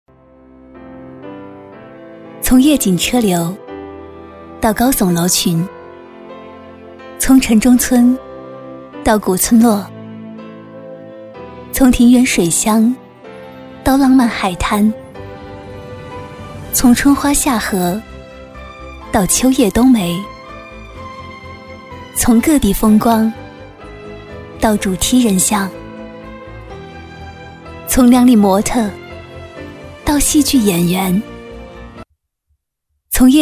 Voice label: 女, 旁白; 臻享无忧摄

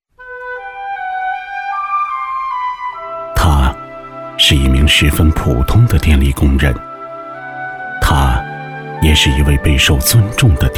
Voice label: 男 颁奖 人物纪录片

